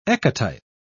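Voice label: "ecotype", British English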